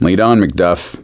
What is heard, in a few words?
lead on, voice; gambler